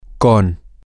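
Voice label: with, Spanish, male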